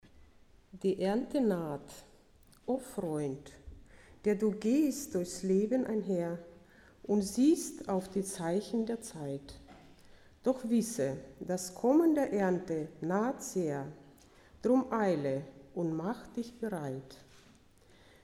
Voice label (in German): Gedicht, Gemeinde; Die Ernte naht